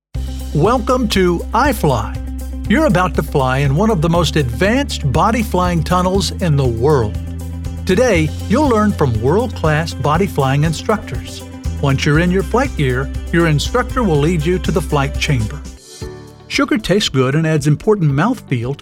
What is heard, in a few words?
Narration